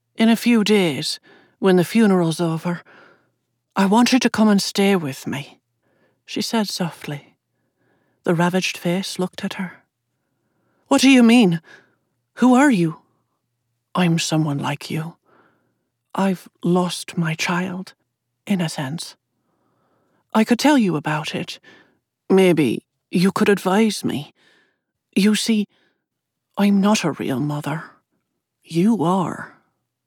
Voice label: Literary Fiction 3rd Person Irish Accent FF Dialogue